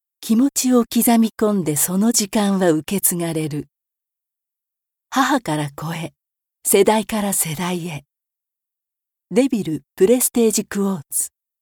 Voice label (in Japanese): 格調高いCM